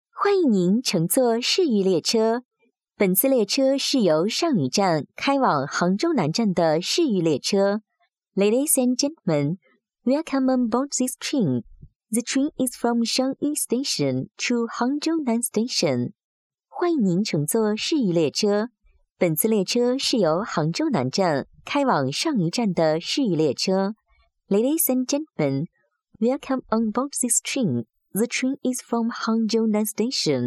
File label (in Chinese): 女 语音报站类中英文 年轻甜美温柔